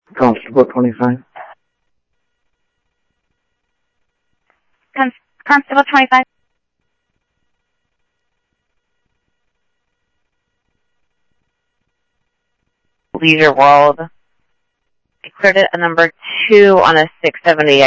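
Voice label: Sheriff West County, scanner audio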